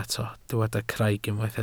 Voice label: "craig", Welsh